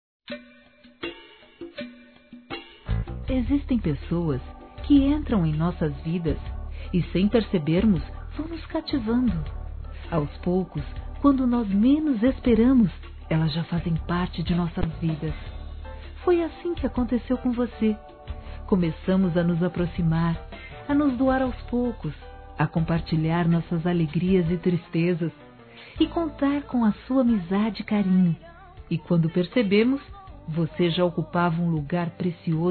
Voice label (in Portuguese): AMIGA (NÓS ENVIAMOS) F; Aniversários; ANIV. Evangelico; Fem